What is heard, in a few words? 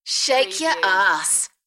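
British female Shake ya arse; beanstalk audio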